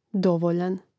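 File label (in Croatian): "dovoljan", Hrvatski; mreznik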